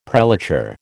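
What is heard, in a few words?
"prelature", American English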